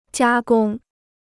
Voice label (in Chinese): "加工", Chinese